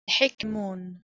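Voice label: "Hekmon", Proto-Indo-European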